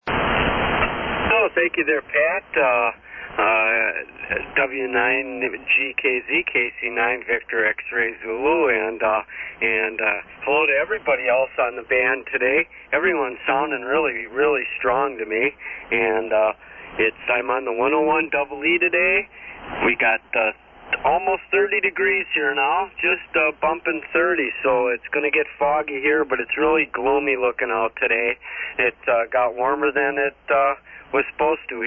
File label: Yaesu FT-101EE; Hustisford, Wi.